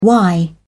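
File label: "why", British English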